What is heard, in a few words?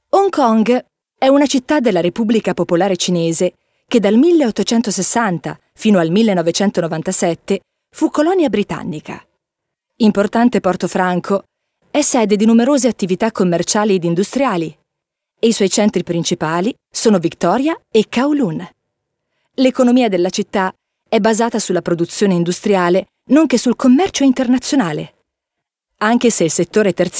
Female Italian Other Projects